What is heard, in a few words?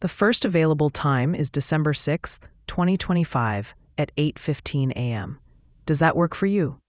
question english